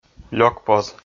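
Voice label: "лёкпоз", Komi, male; kpv audio dict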